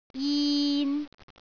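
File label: "jin3", Cantonese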